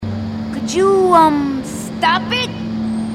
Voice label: female marine